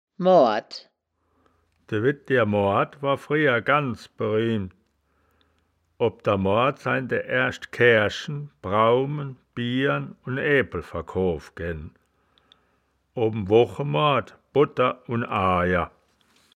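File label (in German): "moard", Wittlicher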